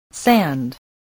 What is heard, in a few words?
"sand", English spelling